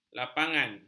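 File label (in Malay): "lapangan", Malay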